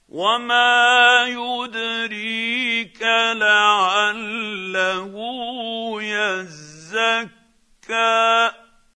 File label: quran, Hussary, tafseer; Abasa